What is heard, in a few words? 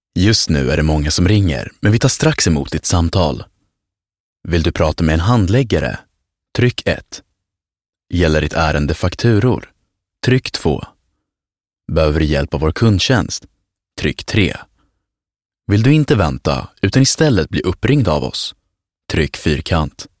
Swedish Phone System Voice, male; Phone Greetings / On Hold; IVR